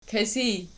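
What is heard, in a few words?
"kisij" nominative, Archi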